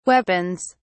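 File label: "weapons", British English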